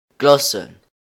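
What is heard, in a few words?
"Glacen", Luxembourgish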